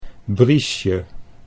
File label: "briesje", Dutch